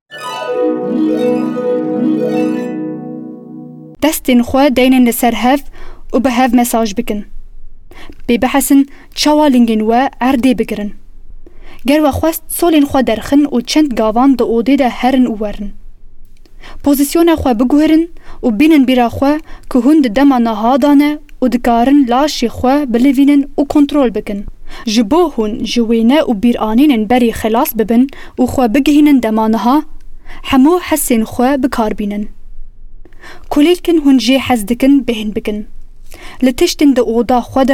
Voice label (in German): Kurmanci; NAWA